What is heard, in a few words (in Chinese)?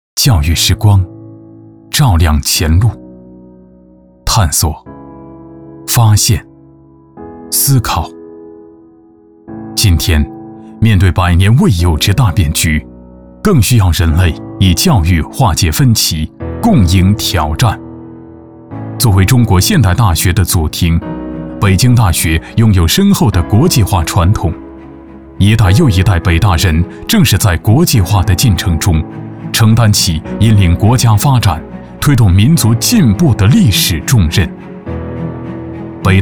男, 宣传片; 北大汇丰